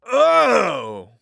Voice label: Announcer Lobby Card Oh; paladins